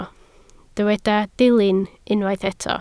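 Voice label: "dilyn" Welsh, spoken word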